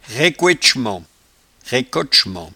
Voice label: "raiccotchemenzt", patois jura

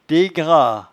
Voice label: "degra", patois jura

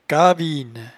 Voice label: "caviene", patois jura

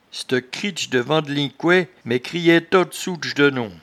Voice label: "ctechrichte", patois jura

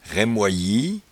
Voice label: "raimoiyie", patois jura

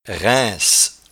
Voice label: "raince", patois jura

